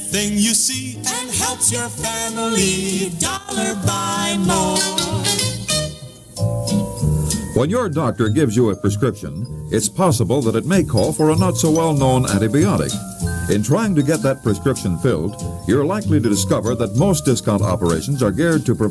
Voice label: Drug Store Radio Commercial; Liggett Rexall IDL

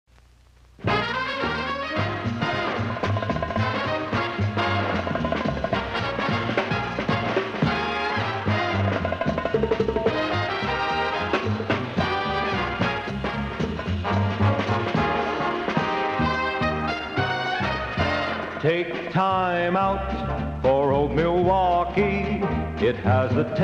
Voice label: Beer Surfing Commercial; 1964; Old Milwaukee

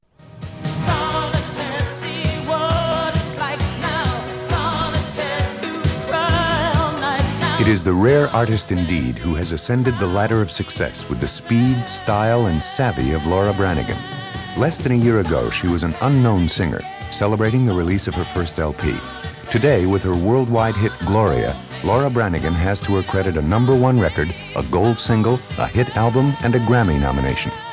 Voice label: Radio Commercials

